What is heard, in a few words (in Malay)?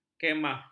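"khemah", Malay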